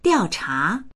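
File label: "diao4cha2", Chinese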